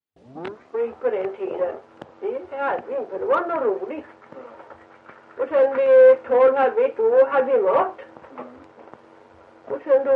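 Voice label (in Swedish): Ventlinge Öland, Svenska sagor, dialekter; Folkminnen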